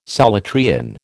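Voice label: "Solutrean", American English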